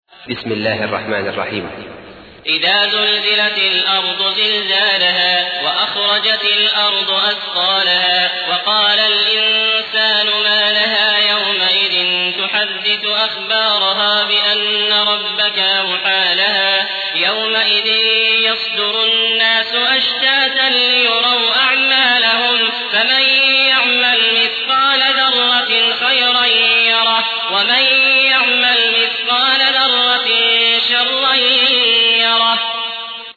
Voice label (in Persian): ترتیل; عبدالعزیز الأحمد; سوره زلزله